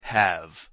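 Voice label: "have", English pronunciation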